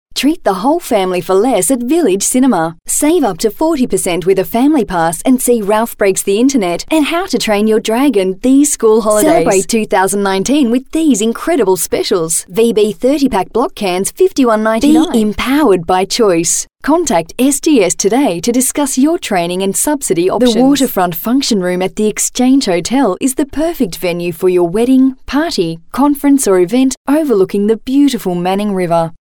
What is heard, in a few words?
Soft Sell